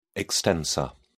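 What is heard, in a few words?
"extensor", British English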